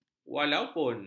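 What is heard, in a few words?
"walaupun", Malay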